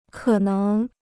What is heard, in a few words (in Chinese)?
"可能", Chinese